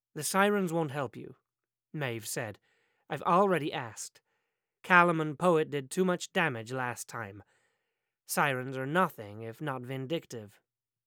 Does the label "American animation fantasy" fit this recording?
Yes